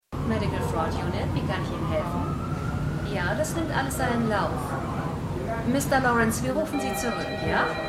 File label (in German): Telefonistin; MEMORIAL HOSPITAL - DIE TAGE NACH HURRIKAN KATRINA (DF 2022); Five Days at Memorial